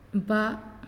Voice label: "Who", Naasioi

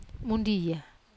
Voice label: "mundia", Wamesa

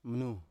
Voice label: "mnu", Biak

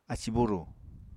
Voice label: "ací-búrù", apatani

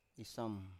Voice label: "isam", Biak

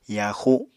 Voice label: "yaaxu", Apatani

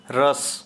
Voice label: Santali Sperm

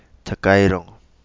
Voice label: "Takairongo", Kapingamarangi

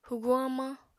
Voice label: "hwguñ hamañ", Apatani

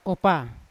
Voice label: "kopa", Yawa; soil; unat

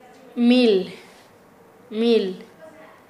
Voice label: "miel", Nasa Yuwe

